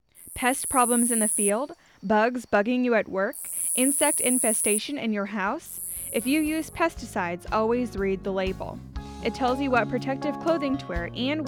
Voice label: Applicators Female